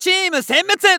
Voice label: Announce Wipe Team, JPN; Eternal Return